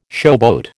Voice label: "showboat", American